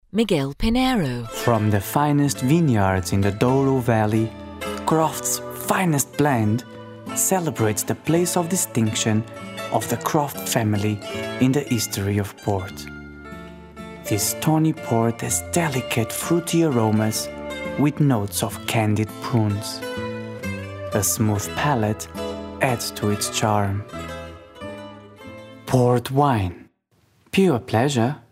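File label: english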